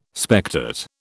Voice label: "spectate", British

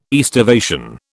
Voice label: "aestivation", British

